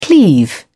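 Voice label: "cleave", English, uk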